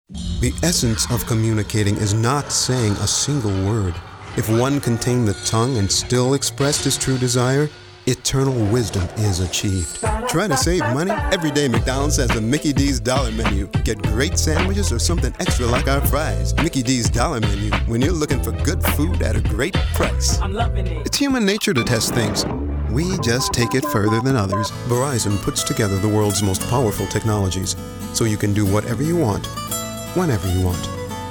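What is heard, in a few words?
voice over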